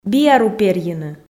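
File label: "биару перйыны" Komi, female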